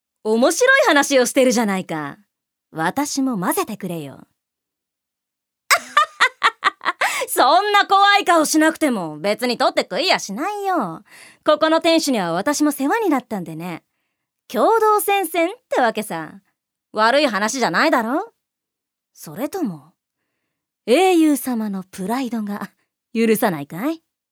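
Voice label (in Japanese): セリフ, 女性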